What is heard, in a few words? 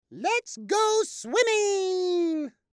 "lets go swimming", English